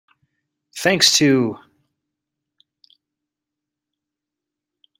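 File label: "thanks to", English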